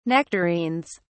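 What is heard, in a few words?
"nectarines", British English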